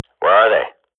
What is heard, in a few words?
radio bot query, swat; Global Offensive; player vo